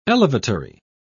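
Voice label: "elevatory", British English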